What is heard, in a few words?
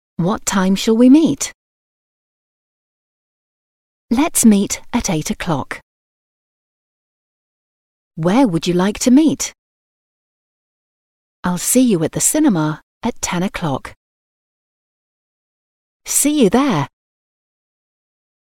English Sentences